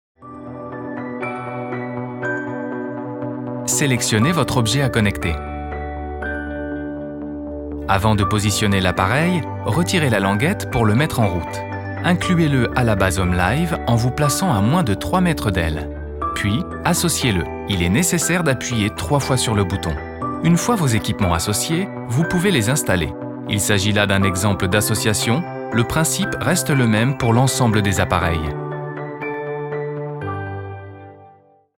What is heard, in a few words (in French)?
E learning